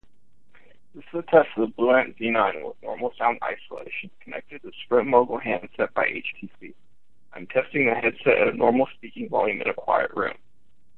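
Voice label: quiet room, Bluetooth headset; BlueAntZ9 normal; Mogul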